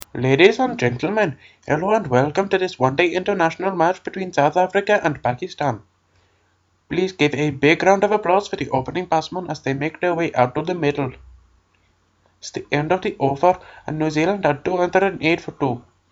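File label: generic south asian, commentary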